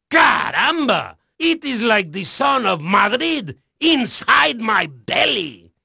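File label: Spanish male, voice; Pirates Of The Caribbean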